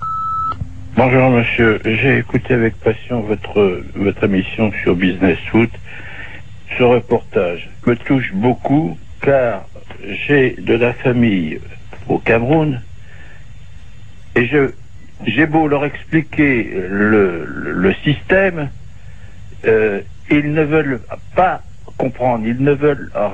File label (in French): repondeur; Filiales africaines foot